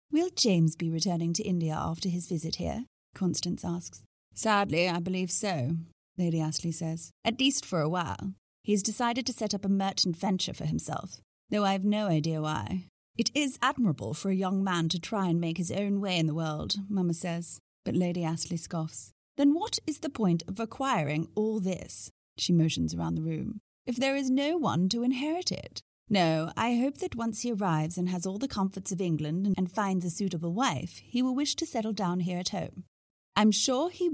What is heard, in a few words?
Audiobook